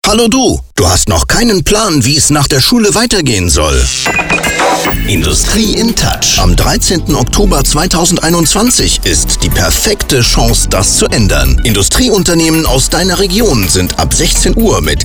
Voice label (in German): Funkspot